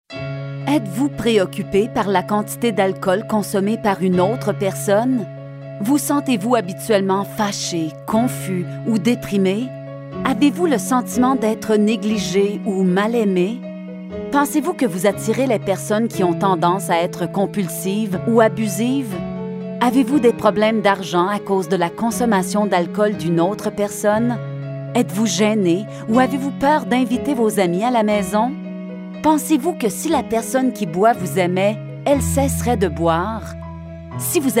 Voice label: FRENCH questions; AlAnon